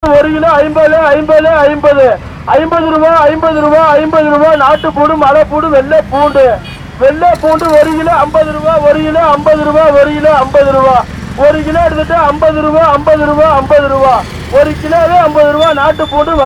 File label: voices garlic seller, South India street recordings